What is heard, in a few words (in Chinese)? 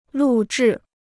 "录制", Chinese